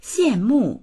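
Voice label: "xian4mu4", Chinese; 羡慕; 羨む、羨望する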